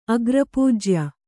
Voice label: "ಅಗ್ರಪೂಜ್ಯ", Kannada